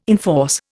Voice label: "enforce", Indian